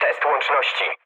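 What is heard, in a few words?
Polish chat; War Thunder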